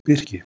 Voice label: "birkij", Proto-Germanic; *birkijo